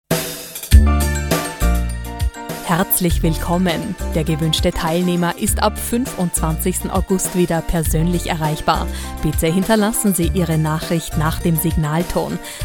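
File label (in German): Abwesend männlich universal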